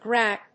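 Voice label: "G rap", English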